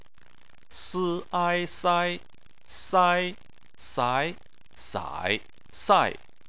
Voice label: "sai", chinese